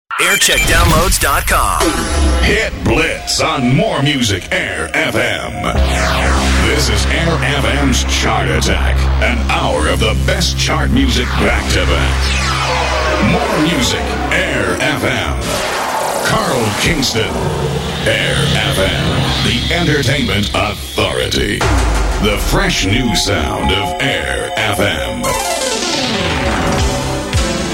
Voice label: Imaging; Aire FM; aire fm leeds; 1990s